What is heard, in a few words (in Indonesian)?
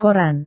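"koran", Indonesian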